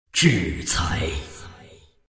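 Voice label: attack, chinese; dota2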